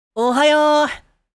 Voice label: Good morning, anime boy character, japanese voice over